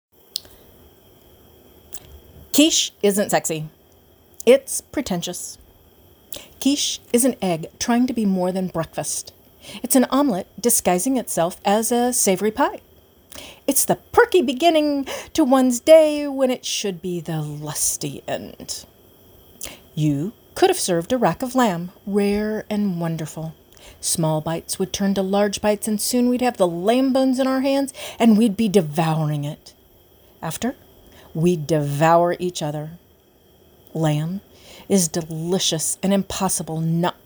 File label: comedic monologue